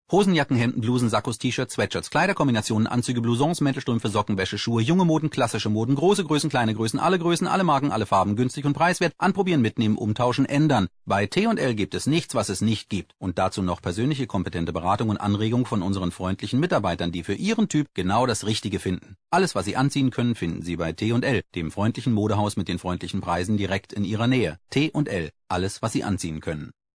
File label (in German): Werbung superschnell